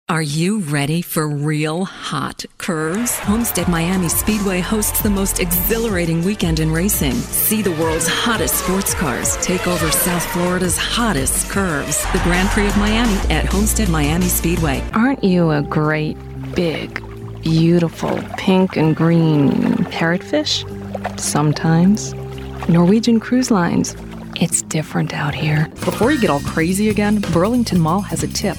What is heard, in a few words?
Commercial